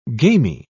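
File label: "gamey", English, British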